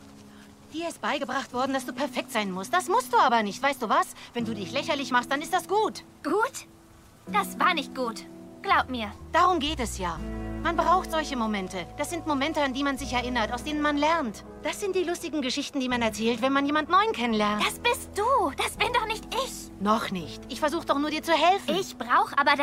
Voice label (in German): Synchronausschnitt